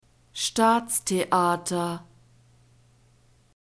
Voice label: "staatstheater", German; neuter

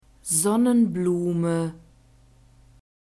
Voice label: "sonnenblume", German; nouns gender feminine

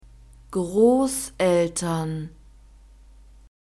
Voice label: "grosseltern", German

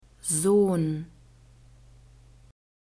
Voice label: "sohn", German; masculine

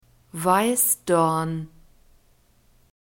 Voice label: "weissdorn", German; nouns gender feminine

